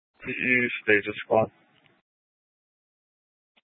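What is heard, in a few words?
Police Dispatch, scanner audio; Police 1 Dispatch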